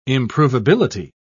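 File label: "improvability", British English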